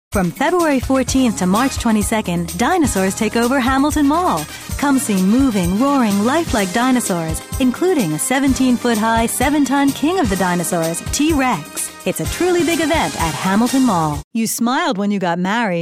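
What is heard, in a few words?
US, commercial, voice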